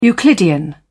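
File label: "euclidean", British English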